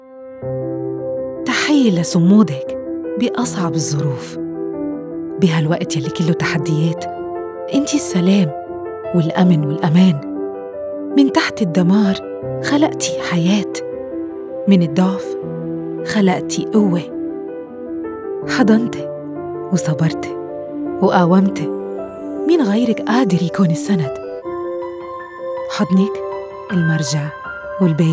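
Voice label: Lebanese, Accents and Dialects; Mother's day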